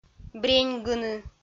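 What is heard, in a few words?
"бреньгыны", Komi, female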